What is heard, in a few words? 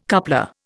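"coupler", Indian English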